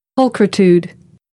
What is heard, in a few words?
"pulchritude", American English; t - ʧ